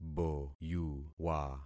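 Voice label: "boyuwa", concatenated syllables; Affixing experiment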